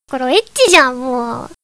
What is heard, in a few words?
chat